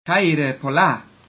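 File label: cheers, ancient Greek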